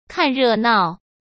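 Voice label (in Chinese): "看热闹", Mandarin Chinese; chinese-flashcards; hsk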